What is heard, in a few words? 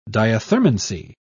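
"diathermancy", British English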